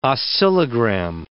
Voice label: "oscillogram", English

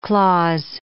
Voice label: "clause", English

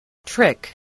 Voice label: "trick", voice

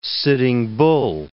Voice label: "Sitting Bull", English

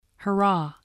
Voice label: "hurrah", voice spelling

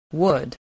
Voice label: "wood", spelling

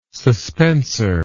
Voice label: "suspensor", English word

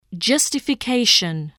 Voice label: "justification", English

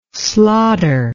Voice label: "slotter", words

